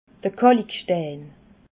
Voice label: "kalchstei", alsace

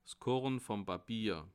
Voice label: "korn", alsace

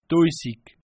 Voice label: "doisig", alsace

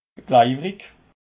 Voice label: "glewerich", alsace

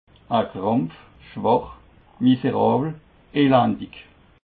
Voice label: "krampf", alsace

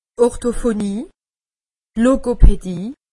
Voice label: "orthophonie", alsace; medecine

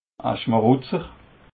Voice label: "schmarutzer", alsace

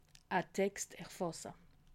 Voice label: "text", alsace

